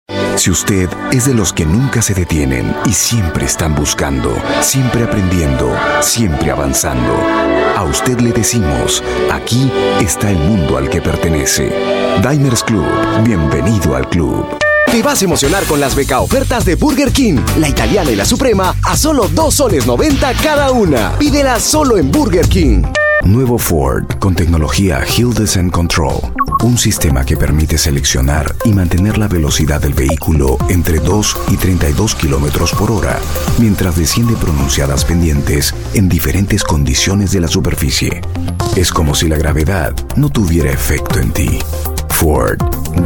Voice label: spanish voice over male commercial